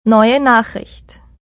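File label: New Message, German, voice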